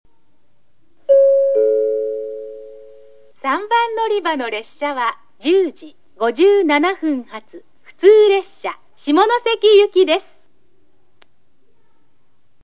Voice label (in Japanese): 停車中放送・女性（普通・下関）; 小倉駅; 3 日田彦山線／田川後藤寺・添田 方面 日豊本線／行橋・中津・大分 方面 山陽本線／門司・下関 方面